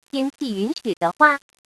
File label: female, tianqi; zh; AXERA-TECH; Inflect-Micro-v2-zh